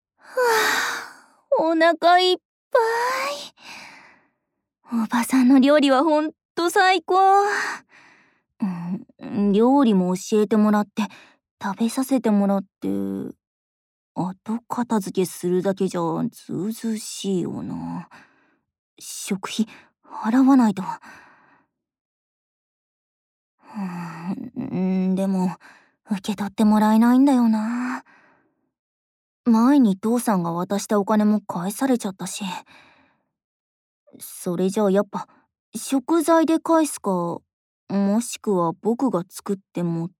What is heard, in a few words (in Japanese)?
LOVE Whisper こうはい, ASMR